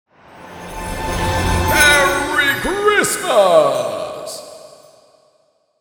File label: Merry christmas santa claus voices